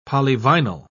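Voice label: "polyvinyl", British English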